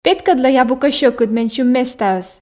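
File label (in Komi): петкӧдлӧй абу кӧ сьӧкыд менсьым местаӧс, Komi, female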